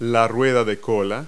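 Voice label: "tail wheel", English words; Multi-Lingual Talking Picture Dictionary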